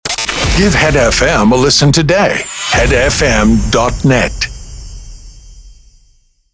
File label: Sweeper Xmas Short